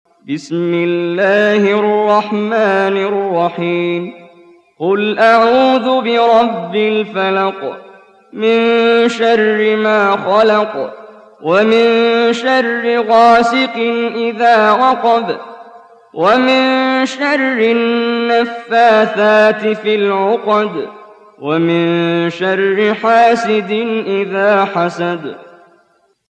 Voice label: ترتیل سوره فلق; استاد محمد جبریل

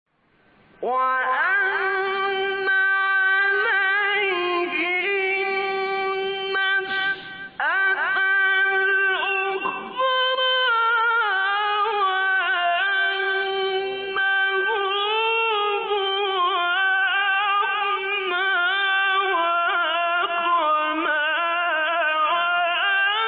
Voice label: فراز زیبای سوره نجم; استاد شعیشع

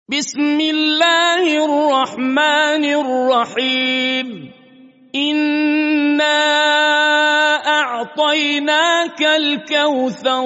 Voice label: تلاوت ترتیل سوره کوثر

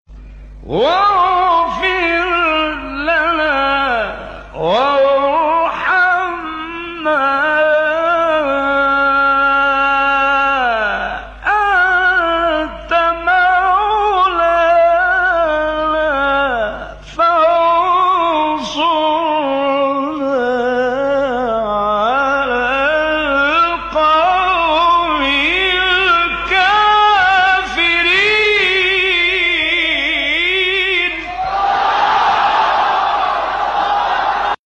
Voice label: سوره مبارکه بقره; آیه ۲۸۶; راغب مصطفی غلوش

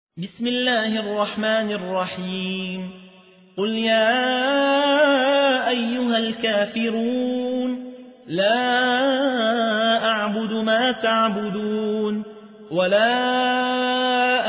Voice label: ترتیل; یحیی حوی; سوره کافرون

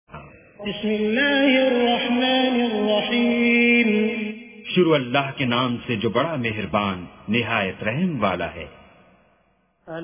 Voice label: ترجمه گویای قرآن کریم به زبان اردو; با تلاوت سدیس و شریم; سوره انشراح

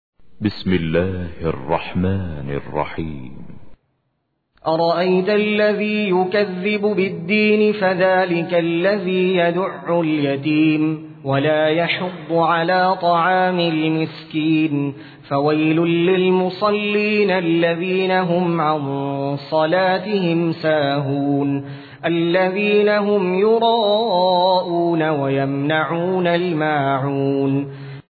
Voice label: ترتیل; سوره ماعون

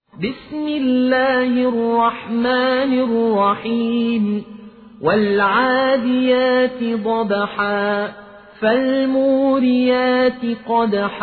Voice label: سوره عادیات حفص عن عاصم; الطرابلسی

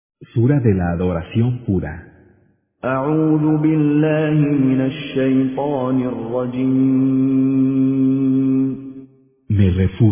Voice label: ترجمه گویای قرآن به زبان اسپانیایی; با تلاوت مشاری العفاسی; سوره اخلاص

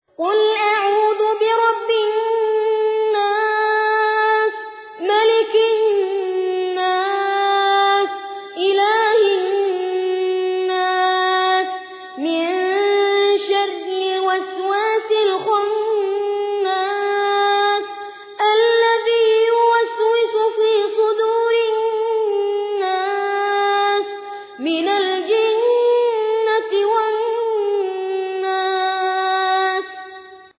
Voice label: ترتیل سوره ناس; محمد البراک

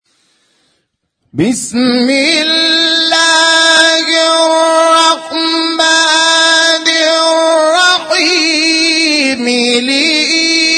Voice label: تلاوت مجلسی سوره مبارکه قریش

